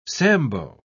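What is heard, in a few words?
"sambo", British English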